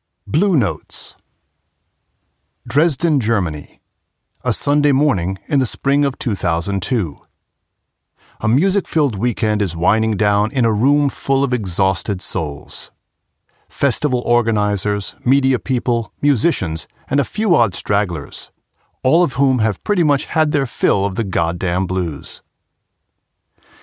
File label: Audiobook US English